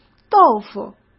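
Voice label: "豆腐", Mandarin Chinese; chinese flashcards; hsk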